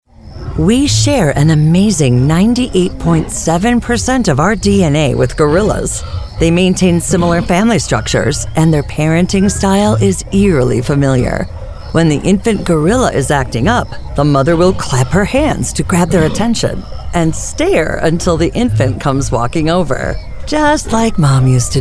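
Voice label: narration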